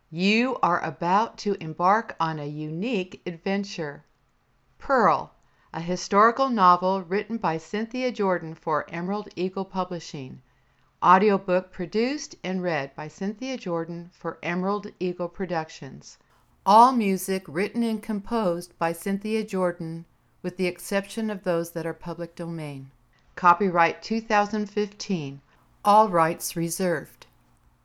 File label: AUDIOBOOK INTRO